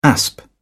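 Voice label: "asp", English, British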